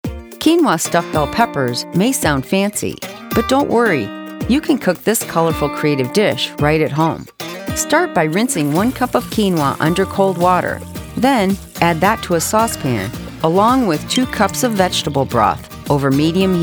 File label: Narration